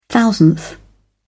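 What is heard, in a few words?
"thousandth", British English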